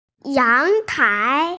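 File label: "yangtai", Mandarin Chinese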